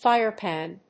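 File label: "Fire pan", English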